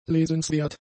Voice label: "lesenswert", German; adjectives

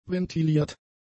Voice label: "ventiliert", German; adjectives

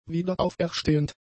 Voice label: "wiederauferstehend", German; adjectives

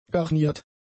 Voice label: "garniert", German; adjectives

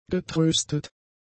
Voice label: "getröstet", german; adjectives

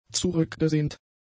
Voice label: "zurückgesehnt", German; adjectives

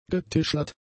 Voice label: "getischlert", german; adjectives

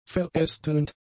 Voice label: "verästelnd", German; adjectives

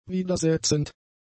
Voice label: "widersetzend", German; adjectives

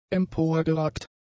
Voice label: "emporgeragt", german; adjectives

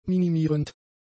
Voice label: "minimierend", German; adjectives

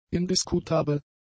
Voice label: "indiskutabel", German; adjectives

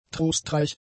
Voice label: "trostreich", German; adjectives

